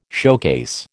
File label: "showcase", American